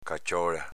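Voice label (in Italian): "caciòla" Veneto dialect; s.f. copricapo buffo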